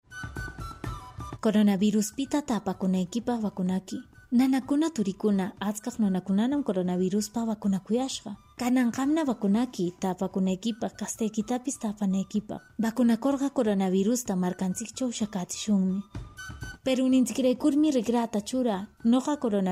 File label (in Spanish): quechua ancash, spot de radio; vacunate para protegerte del coronavirus; vacuna contra el coronavirus